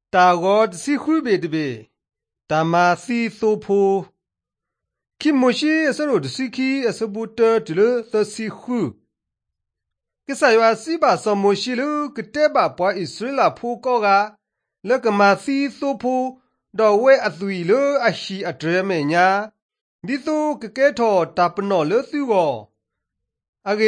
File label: drama, karen sgaw; mighty men of god; bible